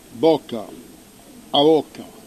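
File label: "bocca", Corsican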